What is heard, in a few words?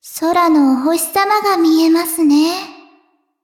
upgrade, ja; Cookie Run